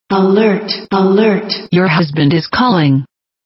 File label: husband calling alert, english